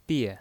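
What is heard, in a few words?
"bie", Mandarin Chinese, fourth tone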